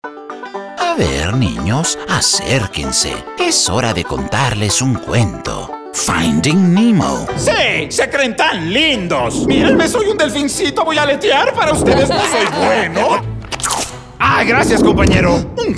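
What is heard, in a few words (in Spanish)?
Animacion, español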